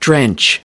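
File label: "drench" US English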